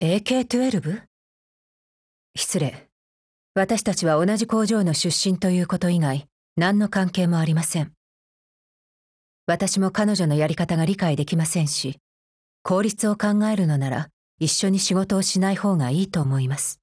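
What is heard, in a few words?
DIALOGUE, Japanese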